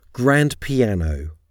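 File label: "grand piano", English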